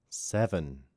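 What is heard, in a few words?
Seven, male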